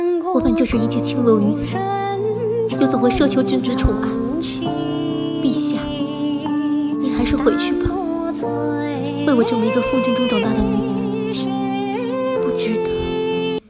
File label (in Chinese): 古风女子, 御姐音、少女音、萝莉音